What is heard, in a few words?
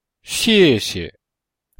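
"xie xie", Mandarin Chinese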